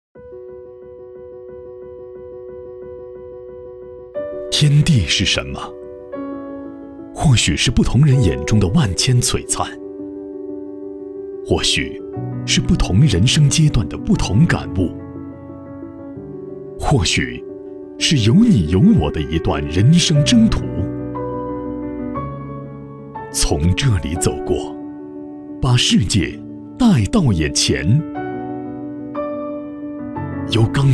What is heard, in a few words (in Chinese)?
男 宣传片; 天地是什么